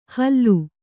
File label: "ಹಲ್ಲು", kannada